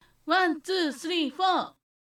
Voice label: Chorused Countup Japanese